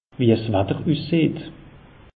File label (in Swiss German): "watter ussieht", alsace; meteo